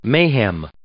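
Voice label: "mayhem", English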